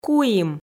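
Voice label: "куим", Komi, female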